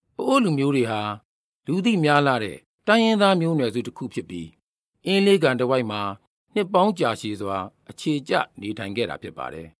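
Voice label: Burmese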